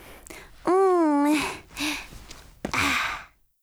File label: FEMALE Emotion